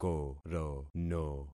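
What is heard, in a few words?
"korono", concatenated syllables; Affixing experiment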